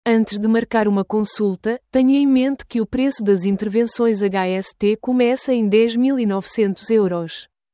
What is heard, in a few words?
Vocal portuguese